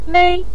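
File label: "mei5", Chinese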